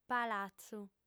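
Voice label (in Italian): "palatzu", lingua sarda, fem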